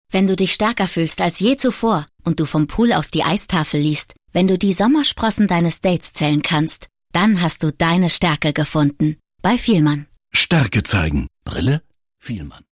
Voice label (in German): Spots Off; Fielmann; Sonnenbrillen